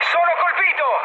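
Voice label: Italian aircraft destroyed mood high, speech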